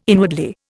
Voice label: "inwardly", Indian